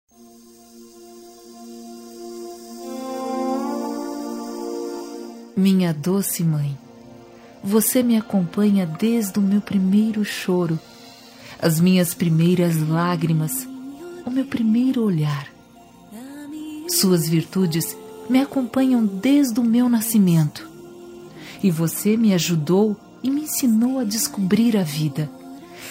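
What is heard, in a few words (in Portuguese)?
Evangélica Aniversário de Mae, female, telemensagens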